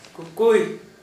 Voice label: "kǝkuj", Gta, Dialect: Hill; wrap up